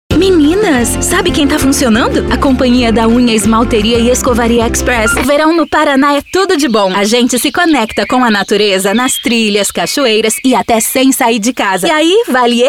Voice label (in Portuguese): Alegre Descontraído Varejo